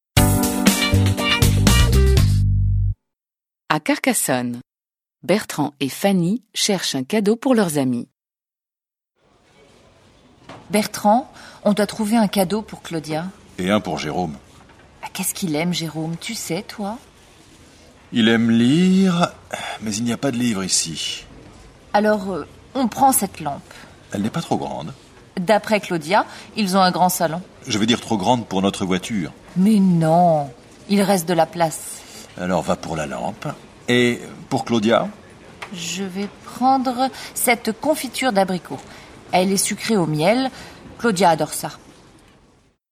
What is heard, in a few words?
French